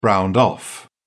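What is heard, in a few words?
"browned off", British English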